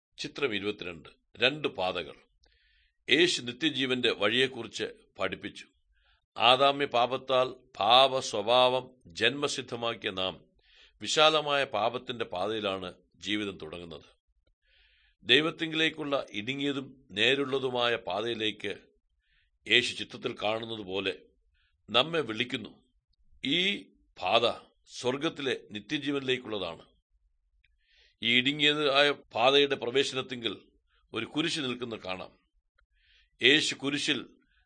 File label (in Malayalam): good news malayalam, drama